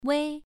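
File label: "wei" Mandarin Chinese, first tone, pronunciation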